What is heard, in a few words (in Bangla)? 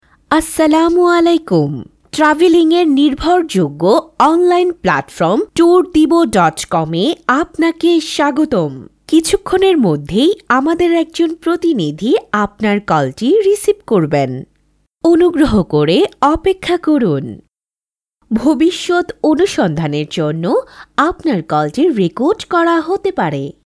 caller tune, bangla voice